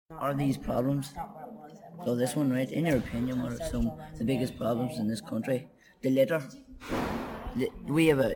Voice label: Belfast Male 60s